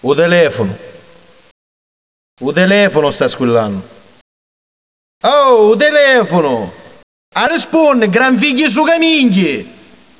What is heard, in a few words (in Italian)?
Rispondi al telefono (in siciliano); cellulari; suonerie